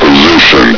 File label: "position", Combine soldier; hl2